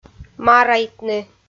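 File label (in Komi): "марайтны", Komi-Zyrian, female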